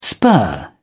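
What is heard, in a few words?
"spur", English, British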